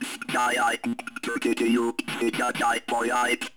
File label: comm voice; Ambient Audio; XDK4627 BIOS and XDK Launcher and Dashboard(for Retail); ogxbox